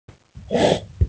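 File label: "umbrella", Mandarin; yu3san3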